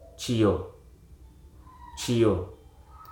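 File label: "june", English, Hruso dictionary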